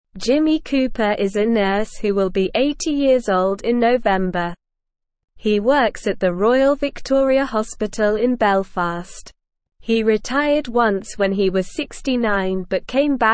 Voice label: English Lower Intermediate SLOW Reading; Jimmy Cooper The Very Old Nurse Who Keeps Working; English Newsroom